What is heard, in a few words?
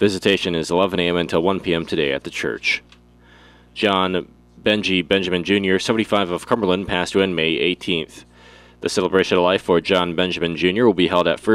Friday Funeral Announcements